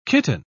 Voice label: "kitten", pronounce